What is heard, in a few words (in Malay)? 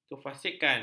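"kefasikkan", Malay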